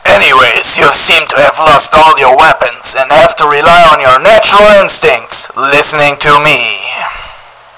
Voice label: radio; murica

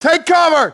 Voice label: take cover, grunt; nimble grunt

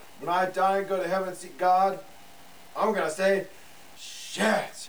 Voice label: Chat

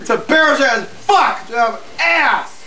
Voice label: Chat; Tourettes Guy

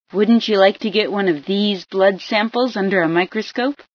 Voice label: blood sample, female

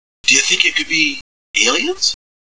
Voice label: young grunt

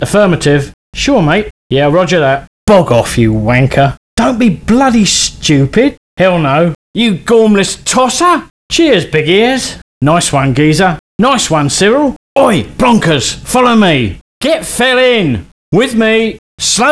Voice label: male voice one

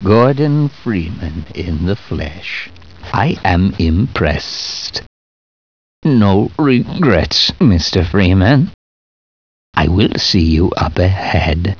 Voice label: impressed; gman

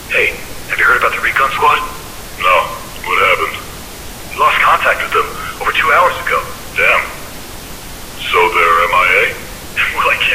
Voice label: grunt chat; alphaunit

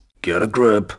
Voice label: command, grunt; nimble grunt

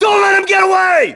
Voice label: "freaks", grunt; nimble grunt

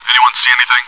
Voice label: chat

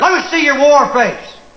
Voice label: chat; sgthartmann

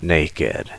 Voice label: "naked", word

